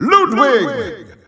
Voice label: Dutch Announcer; SSBU